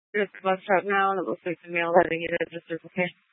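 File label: Tempe Police Department, scanner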